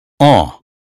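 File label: American phonetics sound croissant; 英语音标发音（剑桥版）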